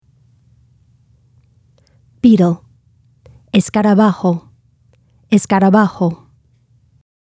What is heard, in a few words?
beetle spanish; insects-animals